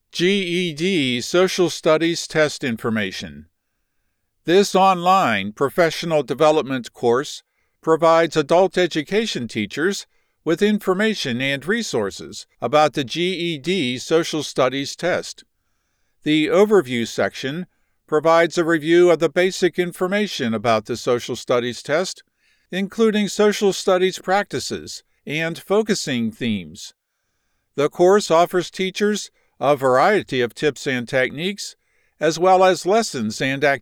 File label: Academic instructive elearning